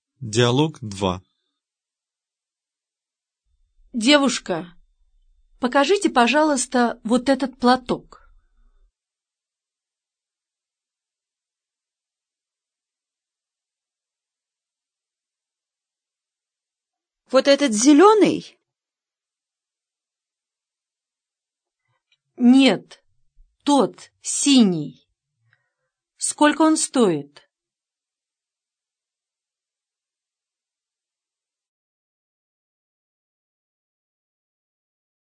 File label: dialog, russian